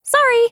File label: VO en